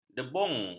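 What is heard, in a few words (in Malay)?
"debung", Malay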